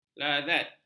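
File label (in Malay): "lazat", Malay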